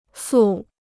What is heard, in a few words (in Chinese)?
"素", Chinese